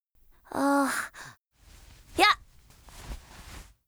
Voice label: FEMALE Emotion